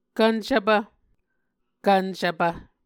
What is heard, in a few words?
"kvncvpv", Muscogee